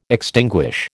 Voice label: "extinguish", American